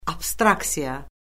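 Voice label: "abstraksiya", Azerbaijani; Orfoqrafiya Lüğəti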